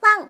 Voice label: "wan", doubutsu

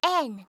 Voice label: "n", alphabet

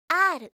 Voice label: "r", alphabet

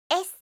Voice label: "s", alphabet

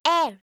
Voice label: "l", alphabet

